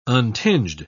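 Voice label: "untinged", British English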